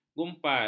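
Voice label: "gumpal", Malay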